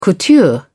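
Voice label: "couture", British English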